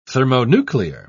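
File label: "thermonuclear", British English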